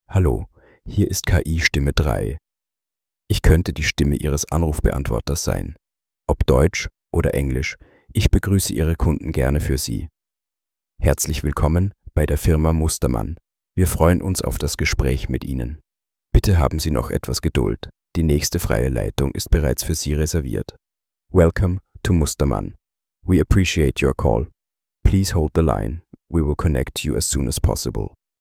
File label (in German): KI-Stimme